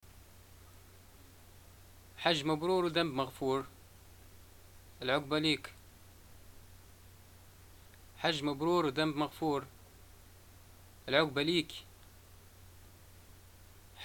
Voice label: greeting pilgrimage, arabic, ly